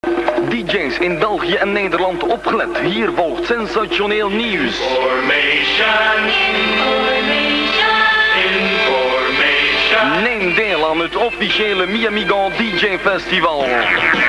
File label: commercial